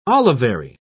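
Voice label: "olivary", British English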